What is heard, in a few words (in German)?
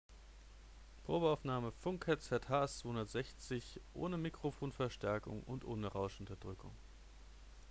Sprachaufnahme ohne alles, headset; headset hs 260